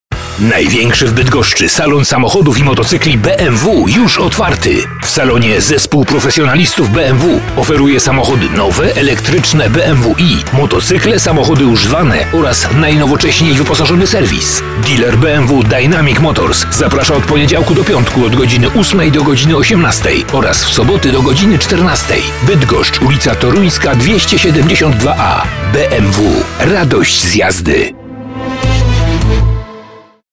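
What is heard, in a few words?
Polish, male, commercial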